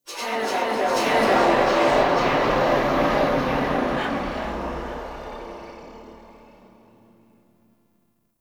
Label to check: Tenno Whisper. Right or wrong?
Right